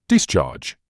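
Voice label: "discharge", British English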